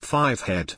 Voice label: "5head" pronunciation